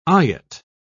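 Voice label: "eyot", British English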